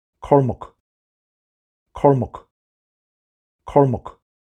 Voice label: "Cormac", Irish; Irish names for boys

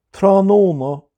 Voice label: "Tráthnóna", Irish

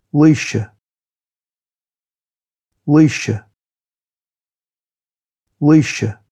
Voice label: "Laoise" Irish names for girls

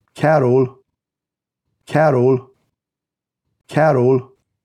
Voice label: "Cerbhall", Irish; Irish names for boys

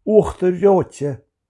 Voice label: "Uachtar Reoite" Irish

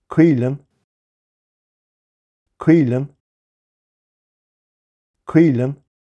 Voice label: "Caoilfhionn" Irish names for girls

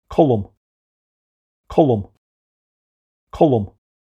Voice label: "Colm", Irish; Irish names for boys

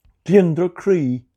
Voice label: "Gliondar croí", Irish

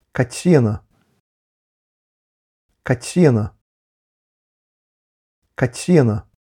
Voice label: "Caitriona" Irish names for girls

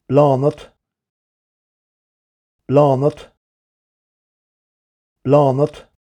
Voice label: "Bláthnat", Irish

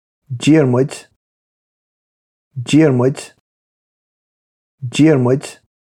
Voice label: "Diarmuid" Irish names for boys; 50 Irish Names for Boys (and their Pronunciation)

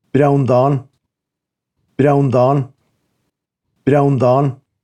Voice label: "Breandán", Irish; Irish names for boys

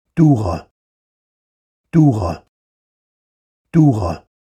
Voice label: "Dughall", Irish; Irish names for boys

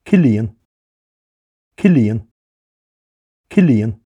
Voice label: "Cillian", Irish; Irish names for boys

